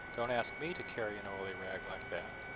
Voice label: noisy destroyer engine SNR5